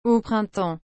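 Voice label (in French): "au printemps", French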